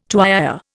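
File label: "tuyÊre", Indian English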